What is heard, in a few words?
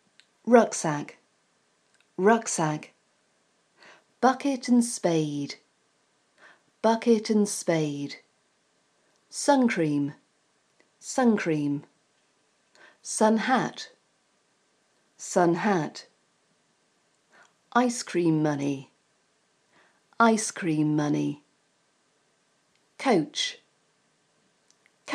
beach school trip british accent pronunciation